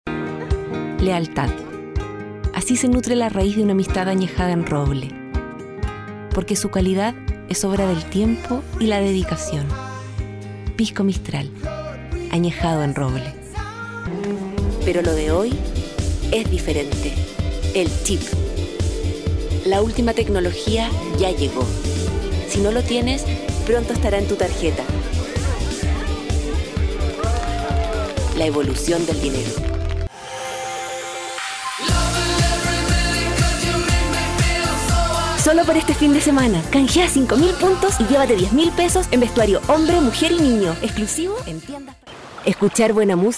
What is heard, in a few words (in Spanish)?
Demo Locutora